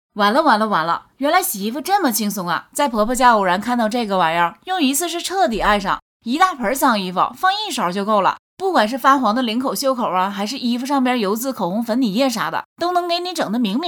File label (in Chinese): 东北话